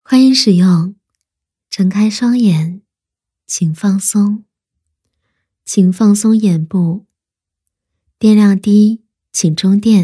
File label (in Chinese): 女-冥想-放松; 甜美亲和; 成熟知性